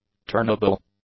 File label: "turnable", English; adjectives